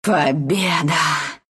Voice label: win, ru; Dota 2